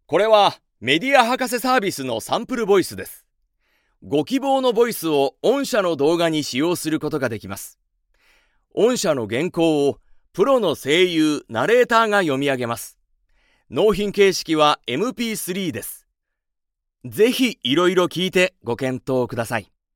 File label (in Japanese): genki, narration sample voice men